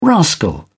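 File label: "rascal", British English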